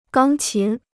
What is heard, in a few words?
"钢琴", Chinese